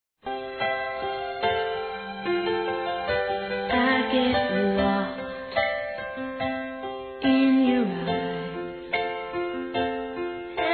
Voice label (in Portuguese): FORMATURA DA NETA, fem; Telemensagens 2017